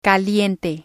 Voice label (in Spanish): "caliente", Spanish